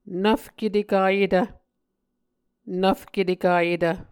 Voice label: "nvfketekayetv", Muscogee